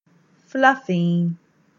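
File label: English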